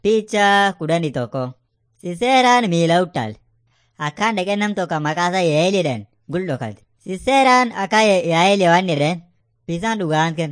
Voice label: afan munyoyaya